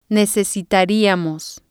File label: "necesitariamos", spanish